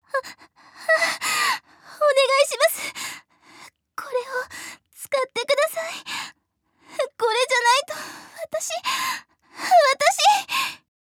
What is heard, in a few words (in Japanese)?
おねだりボイス; 鞠音2